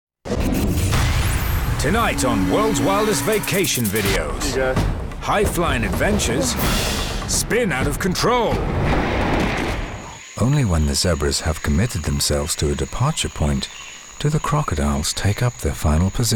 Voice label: languages irish